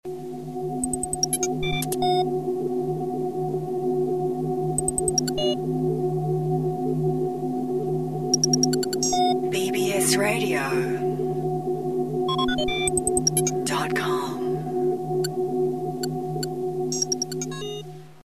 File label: bbs radio promo; bbsradio dot com